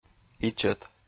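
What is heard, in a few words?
"ичӧт", Komi, male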